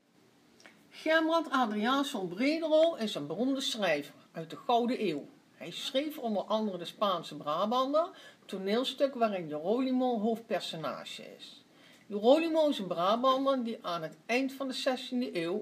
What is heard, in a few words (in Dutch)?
Fragment Nijmeegs